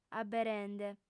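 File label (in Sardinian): "aberende", lingua sarda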